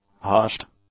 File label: "hushed", English; adjectives